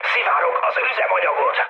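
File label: Hungarian tank damaged mood high, speech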